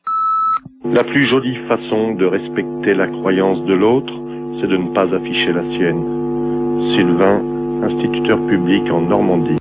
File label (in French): répondeur